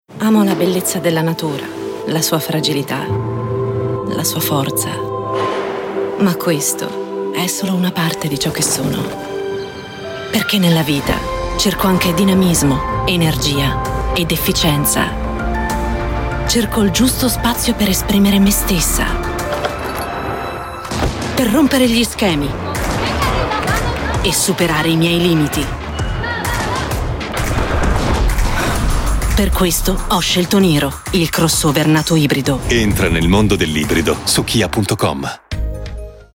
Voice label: female italian comercial; ideasonora

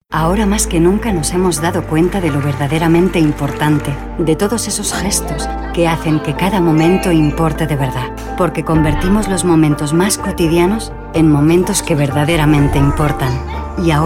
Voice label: female spanish comercial; ideasonora

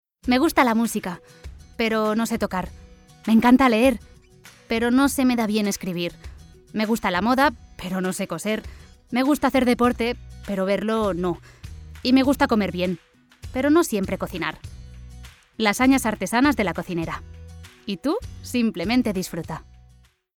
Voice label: female spanish food comercial; ideasonora

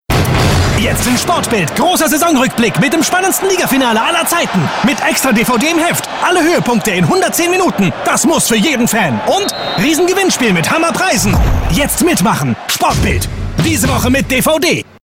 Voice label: male german commercial; ideasonora

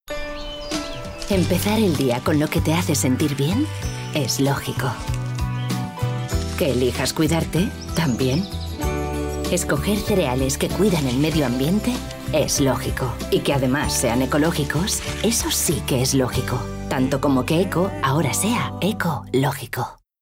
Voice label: female spanish food comercial; ideasonora

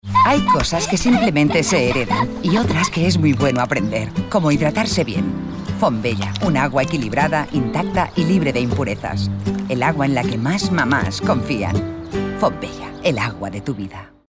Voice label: female spanish water comercial; ideasonora

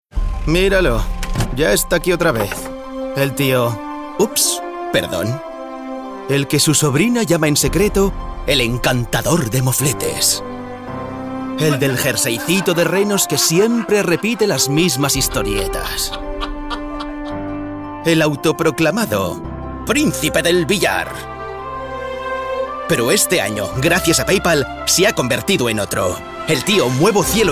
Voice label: male spanish payment comercial; ideasonora

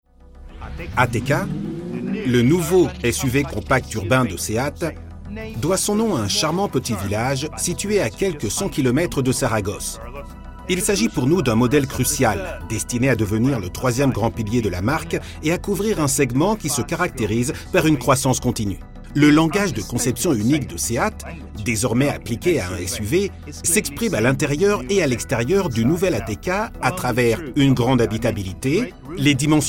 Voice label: male french documentary comercial; ideasonora

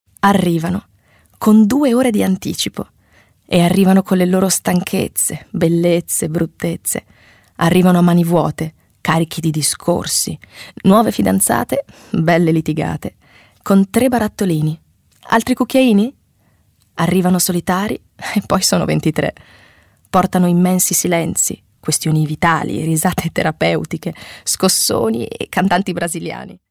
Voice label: female italian comercial

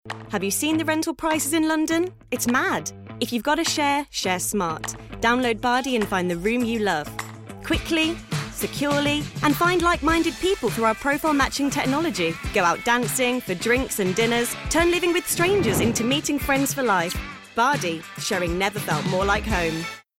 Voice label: female british english rent comercial; ideasonora

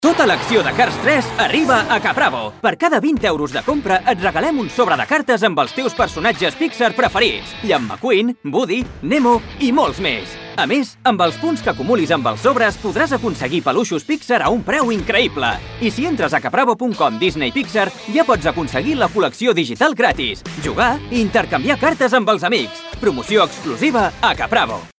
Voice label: male catalan market comercial; ideasonora

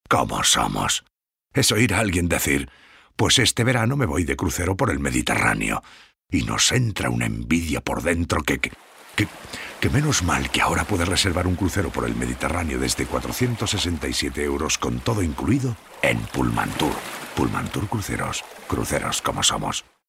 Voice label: male spanish travel comercial; ideasonora

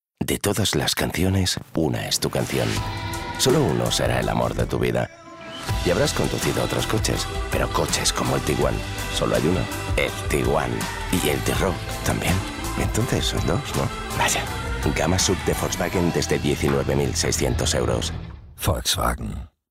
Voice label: male spanish car comercial; ideasonora

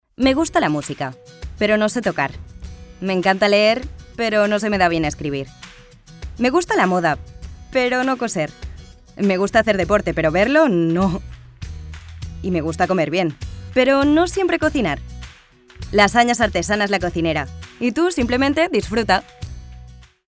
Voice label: female spanish food comercial; ideasonora

